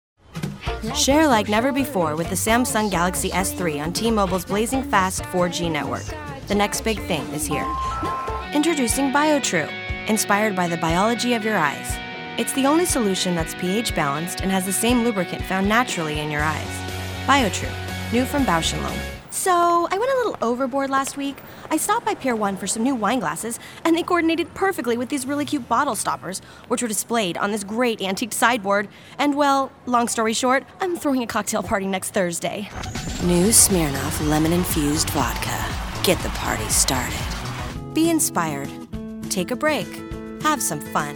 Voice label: Commercial